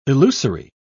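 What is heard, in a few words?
"elusory", British English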